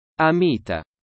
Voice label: latin